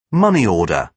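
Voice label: "money order", British English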